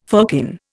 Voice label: "firkin", Indian